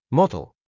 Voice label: "B40", model UK; dentaleikaiwa